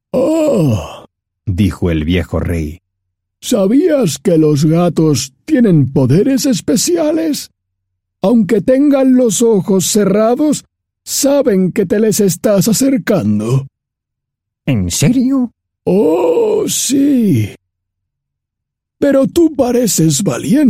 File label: audiobooks men